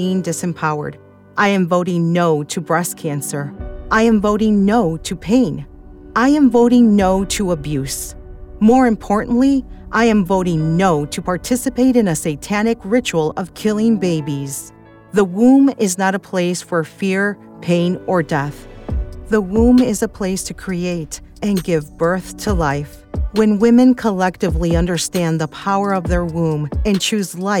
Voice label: Vote No on Amendment 4 FL, political voiceover